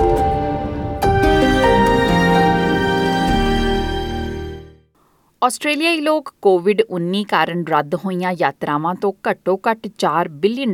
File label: punjabi; SBS Punjabi; Delay in flight refunds leaves many stranded Australians unable to afford a new flight home